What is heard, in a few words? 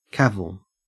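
"cavil", Australian English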